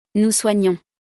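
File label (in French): "nous soignons", French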